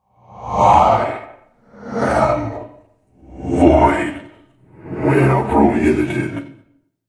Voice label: VOID Walker FUNNY; wow; VoidWalkerVO